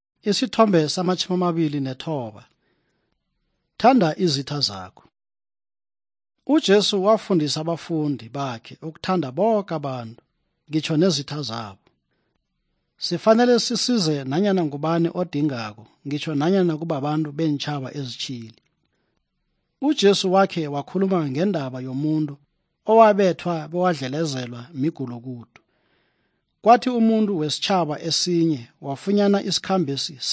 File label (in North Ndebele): good news ndebele southern, drama